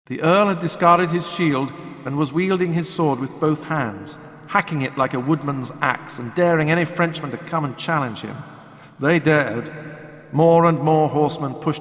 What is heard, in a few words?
Speech In Church; RaySpace